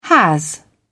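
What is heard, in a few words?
"has", British English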